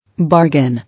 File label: "Bargain", English